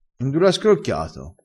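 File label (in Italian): "scrocchia", vocabolario dialettale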